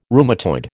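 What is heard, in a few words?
"rheumatoid", American English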